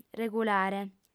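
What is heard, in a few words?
"regulare", female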